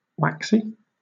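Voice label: "waxy", British English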